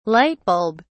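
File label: "lightbulb", English, British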